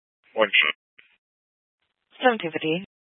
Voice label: Fire Dispatch, scanner

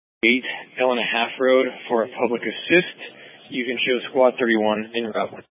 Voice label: Fire Department Dispatch, scanner

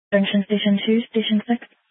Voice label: Fire Department Dispatch; Grand Junction

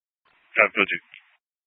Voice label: Tactical Incidents, scanner

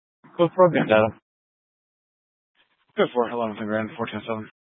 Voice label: Police Department Dispatch, scanner

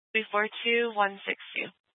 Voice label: Sheriff Dispatch, scanner; Mesa County

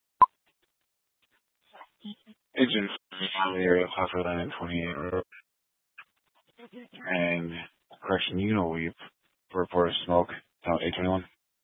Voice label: Fire Dispatch, scanner

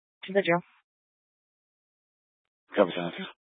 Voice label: Sheriff Dispatch; Mesa County

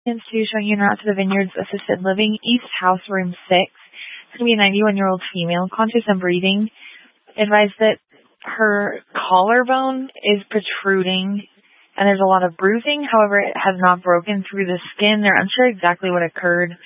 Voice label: Grand Junction Fire Department Dispatch

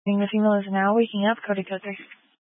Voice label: Grand Junction Fire Department Dispatch

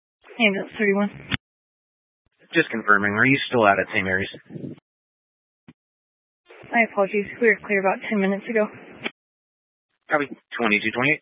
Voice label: Fire Dispatch

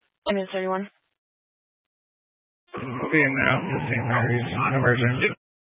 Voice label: Fire Dispatch

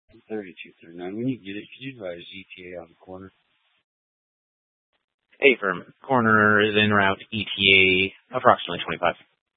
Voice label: Police Dispatch, scanner; Police 1 Dispatch

